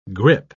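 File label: "grippe", British English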